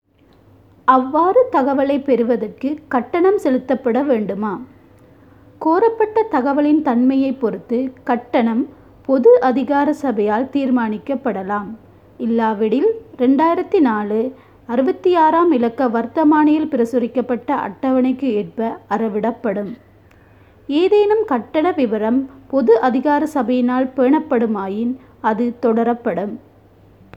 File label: Tamil